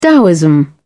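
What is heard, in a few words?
"daoism", British English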